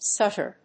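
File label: "stutter", English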